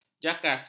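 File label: "jakas", Malay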